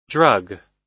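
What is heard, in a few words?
"drug", American English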